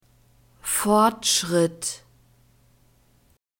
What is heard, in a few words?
"fortschritt", German